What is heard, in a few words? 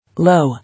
"Low", English